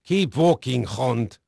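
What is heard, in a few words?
Dutch male, voice; Pirates Of The Caribbean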